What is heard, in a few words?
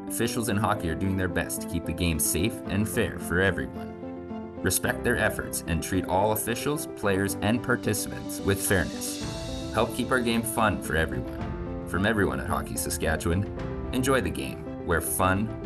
rink announcement officiating message for abuse; PA Rink Announcements; Rink Safe; safe sport; public address